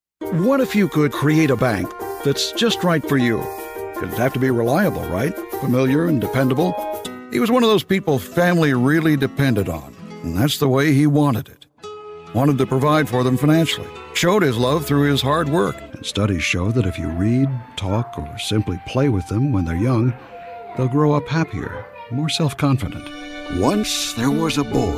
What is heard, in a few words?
Commercial